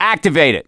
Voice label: misc directional, voice; gambler